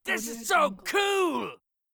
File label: man yells this is so cool; stormwaveaudio